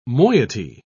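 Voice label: "moiety", British English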